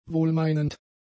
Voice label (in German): "wohlmeinend", German; adjectives